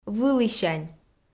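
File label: "вылісянь", Komi, female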